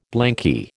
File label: "blanky", American